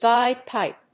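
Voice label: "guide pipe", English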